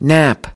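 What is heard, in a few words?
"nap", English; UNIT 5: HEALTHY LIVING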